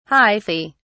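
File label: "hyphae", British English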